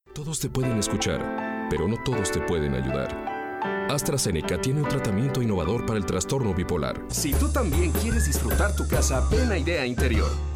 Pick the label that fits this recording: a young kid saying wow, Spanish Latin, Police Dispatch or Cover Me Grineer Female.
Spanish Latin